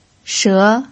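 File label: "she", second tone, Mandarin Chinese pinyin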